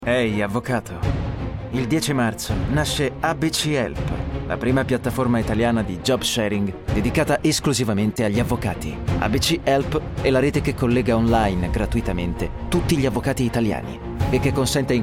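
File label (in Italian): Italian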